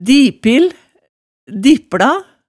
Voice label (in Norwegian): "dipil, dippla", hemsedalsmaalet tale